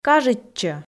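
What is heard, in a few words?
"кажитчӧ", Komi, female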